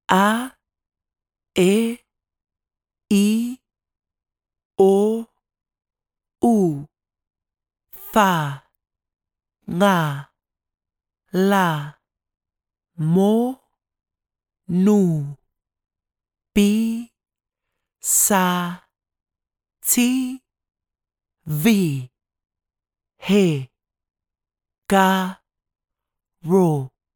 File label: Sāmoan alphabet female voice